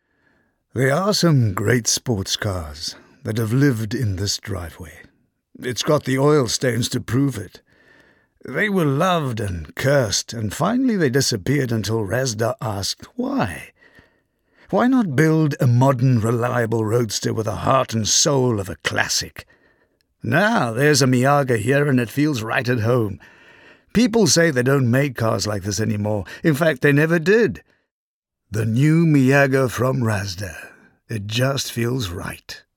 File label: English Conversational